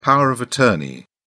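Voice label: "power of attorney", English, British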